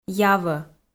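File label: "явӧ", Komi, female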